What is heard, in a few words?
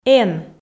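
"эн", Komi, female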